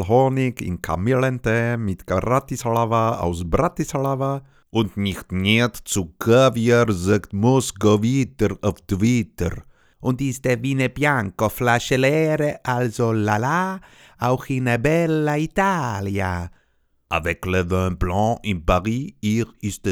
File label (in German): Stimme Akzente